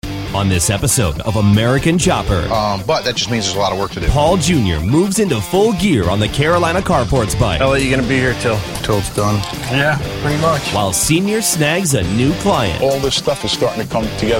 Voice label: promo

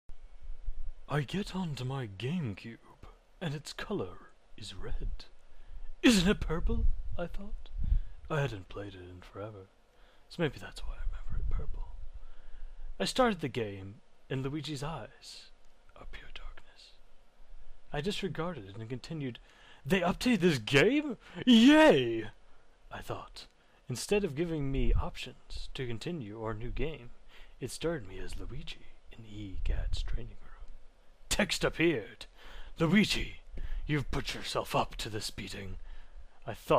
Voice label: dramatic reading; Luigi's Mansion; ghosts don't die

